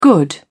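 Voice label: "good", British English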